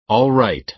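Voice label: "all right", American English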